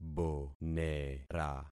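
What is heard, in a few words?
"bonera", concatenated syllables; Affixing experiment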